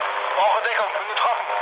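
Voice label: German aircraft damaged mood low, speech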